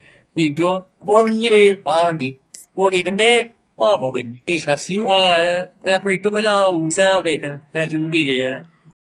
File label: voiceover soft female voi